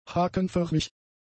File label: "hakenförmig", German; adjectives